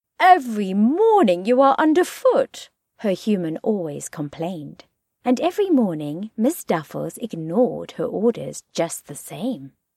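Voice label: English South African, female, narration, accent of south africa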